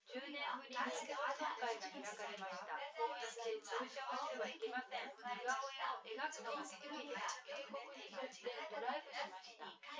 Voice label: female synthconv

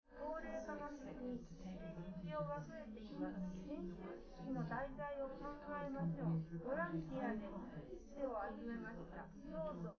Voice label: female liverec; SiSEC11; underdetermined

